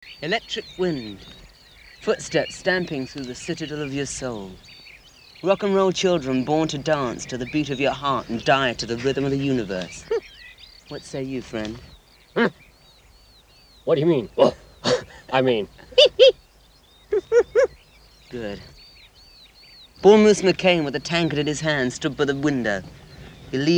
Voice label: poem; 'Electric Wind'; 2005 - Born To Boogie